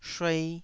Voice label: "shuei2", taiwanese Syllables